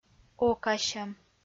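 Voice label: "окасьӧм", Komi, female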